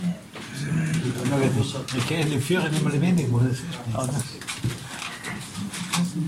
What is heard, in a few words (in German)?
Kommentar